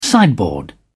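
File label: "sideboard", British English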